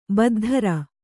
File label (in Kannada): "ಬದ್ಧರ", Kannada